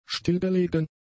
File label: "stillgelegen", German; adjectives